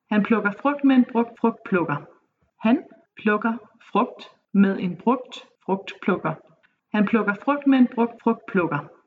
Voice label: tongue twisters, Danish

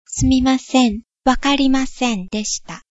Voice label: sorry no understand, japanese

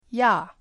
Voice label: yes, german

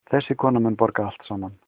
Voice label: pay, icelandic

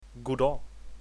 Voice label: hello, swedish; God dag

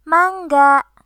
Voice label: goodbye, sundanese

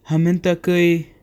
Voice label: how to say, serrano

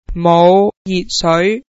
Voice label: there is no hot water, cantonese

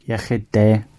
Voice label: cheers, gwentian

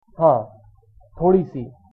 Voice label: yes a little hindi

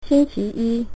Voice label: monday, mandarin

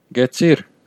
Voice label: stop, armenian western

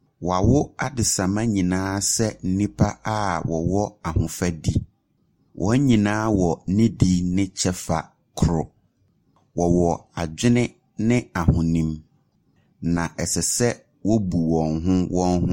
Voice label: Twi

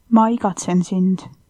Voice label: i miss you, estonian

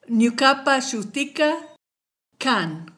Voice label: my name is, kichwa

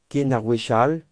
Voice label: goodbye, Breton; Ken ar wech all

